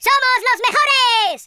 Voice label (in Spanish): "victory", Spanish; speech